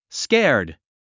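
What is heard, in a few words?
"scared", English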